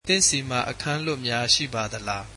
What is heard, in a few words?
Do you have rooms available, burmese